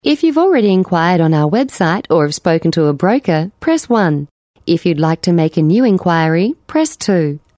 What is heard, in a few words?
IVR